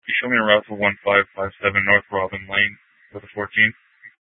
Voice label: Dispatch, scanner